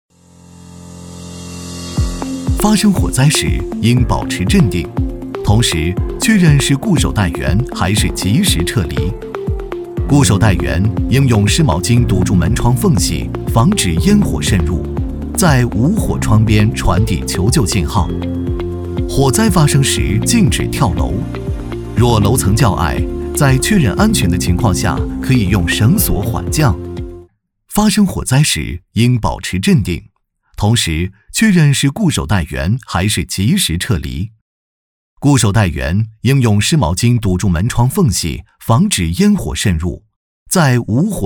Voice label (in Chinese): 男